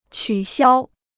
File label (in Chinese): "取消", Chinese